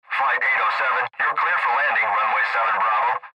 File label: Air Traffic Control Landing